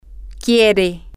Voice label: he wants, spanish, F